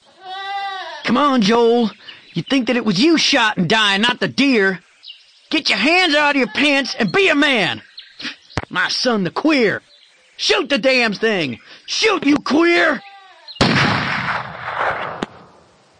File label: voicemails; cycle of death; Echo Messages